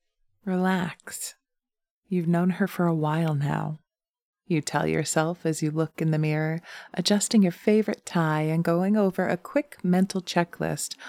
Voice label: Diner Story with sfx

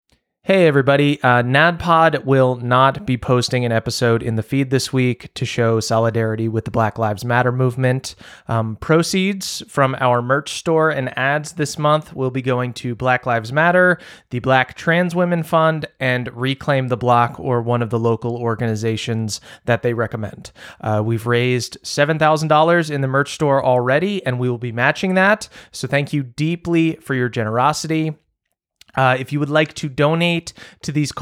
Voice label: Announcement

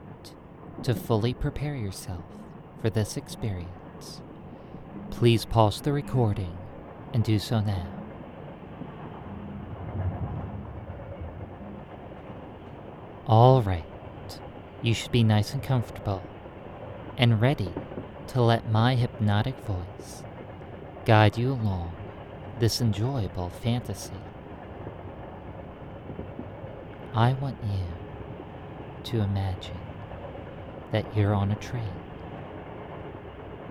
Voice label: Sleep Hypnosis; Sleeper Train Fantasy

